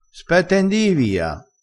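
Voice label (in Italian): "nda", dialettale crespinese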